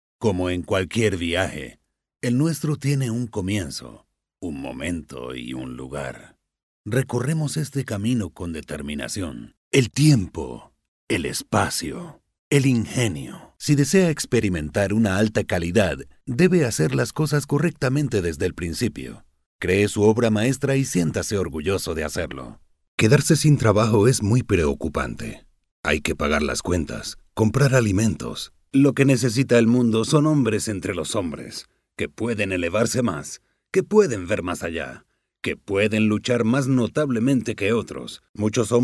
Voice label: male spanish castilian neutral spanish latam corporate narration